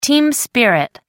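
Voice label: "team spirit", British English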